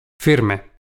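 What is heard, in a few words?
"firme" Spanish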